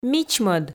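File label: "мичмӧд", Komi, female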